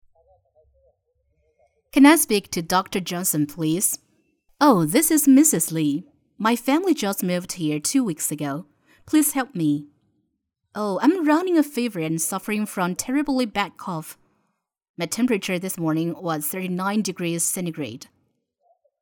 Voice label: 医学英语 对话部分 多角色 女; 医学英语-1-10章的对话部分多角色女

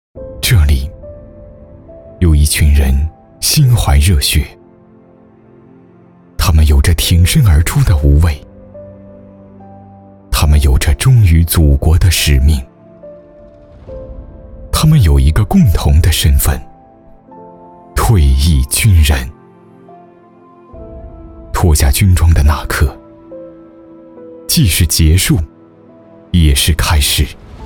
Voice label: 男 走心; 退役军人

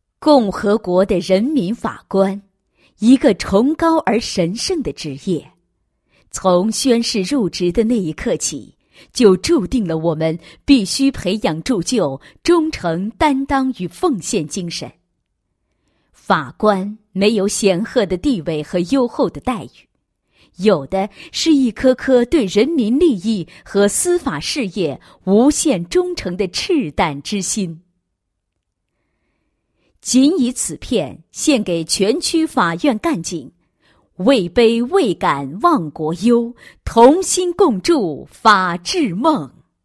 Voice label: 女-片尾致辞-致辞共勉; 法官法院干警

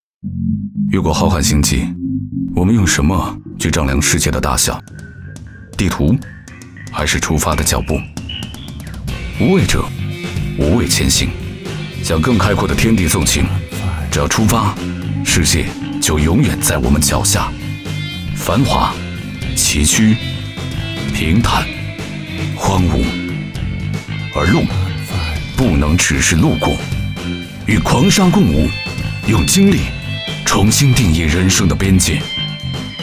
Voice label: 男 TVC; 奥迪Q7

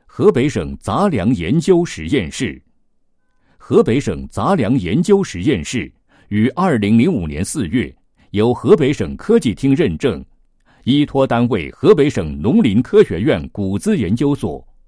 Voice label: 男, 解说专题; 杂粮研究实验室介绍

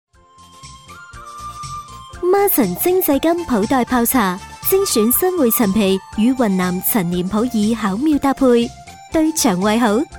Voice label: 粤女 粤语彩铃; 精制柑普袋泡茶

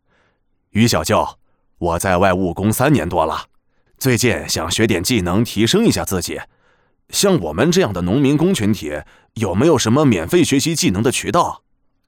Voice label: 角色 农民工类脚本; 角色老李

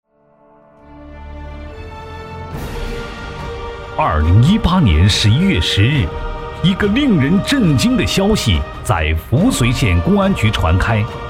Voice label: 男 人物专题 派出所教导员

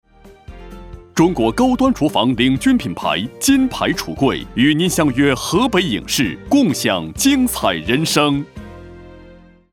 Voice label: 男 橱柜冠名; 河北卫视金牌橱柜

